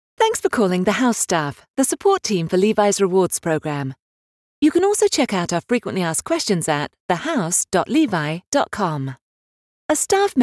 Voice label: phone message; levis